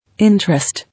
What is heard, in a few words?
"Interest", English